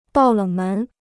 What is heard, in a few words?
"爆冷门", Chinese